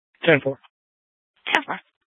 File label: Sheriff, scanner P25; Shrf Lakes